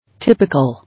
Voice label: "Typical or typic", English

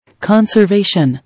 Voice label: "Conservation", English

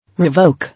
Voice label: "Revoke", English

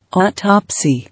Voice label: "Autopsy", English

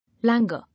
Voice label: "Languor", English

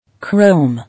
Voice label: "Chrome", English

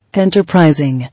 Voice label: "Enterprising", English

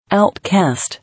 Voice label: "Out cast", English

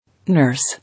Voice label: "Nurse", English

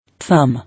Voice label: "Thumb", English

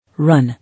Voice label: "Run", English

